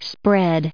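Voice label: "spreading", US English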